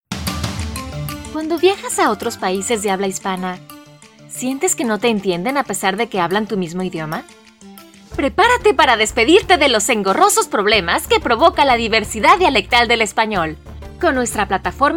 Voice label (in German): Spanische Akzente